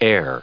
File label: "air"